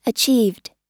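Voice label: "achieved", American English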